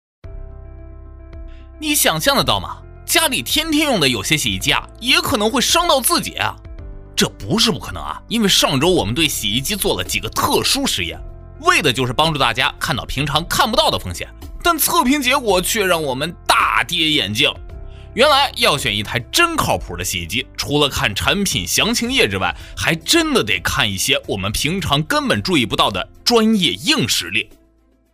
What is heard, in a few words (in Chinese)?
男 UP主测评 洗衣机拆机实验科普视频; 小天鹅; up主解说